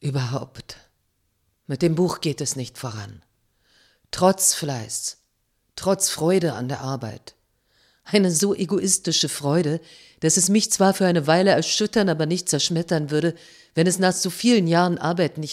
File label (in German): Brief, sprecherin; Brigitte Reimann